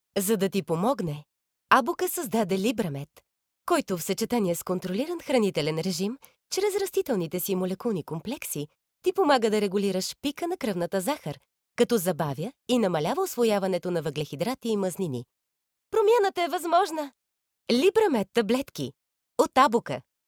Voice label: bulgarian f commercial, voice; voice demos

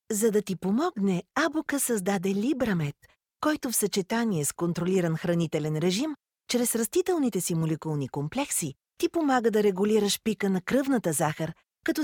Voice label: bulgarian f commercial; voice demos

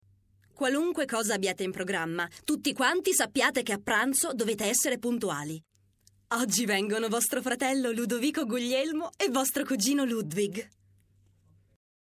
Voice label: italian f narration; voice demos

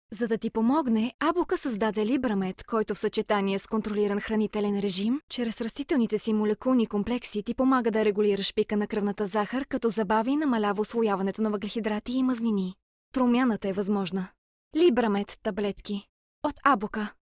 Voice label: bulgarian f commercial; voice demos

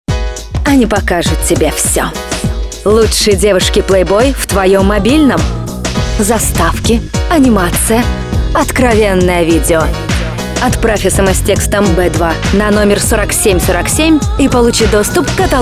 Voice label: russian f commercial

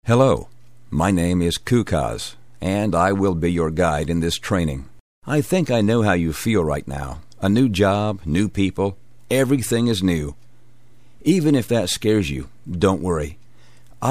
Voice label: english us m e-learning, voice demos

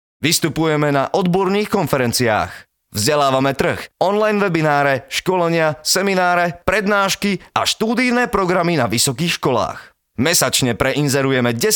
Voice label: slovak m narration; voice demos

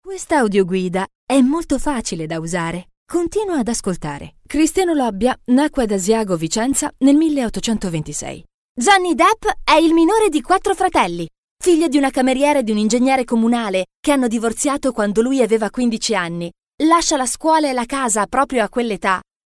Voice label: italian female commercial; voice demos

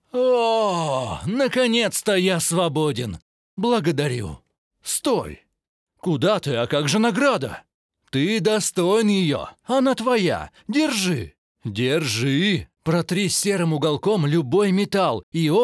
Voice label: russian m character; voice demos

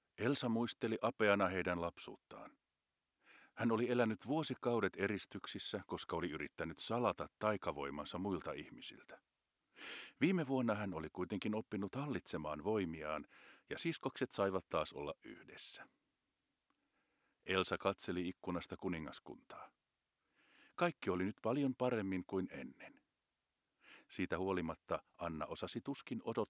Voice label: finnish m narration; voice talents

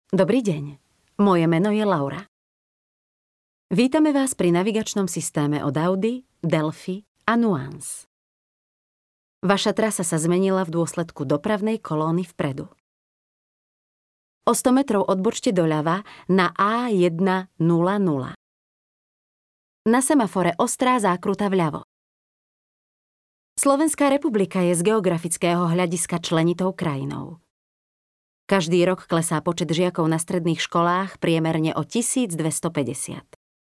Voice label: slovak f narration; voice demos

